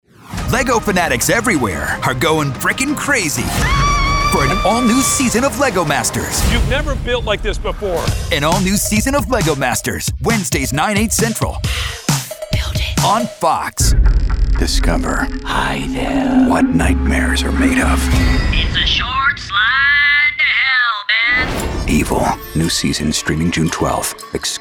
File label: promo men